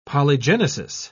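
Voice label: "polygenesis", British English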